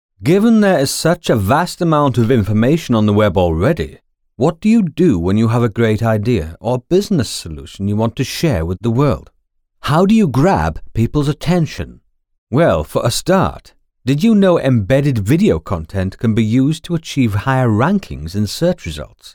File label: narrative